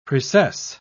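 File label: "precess", British English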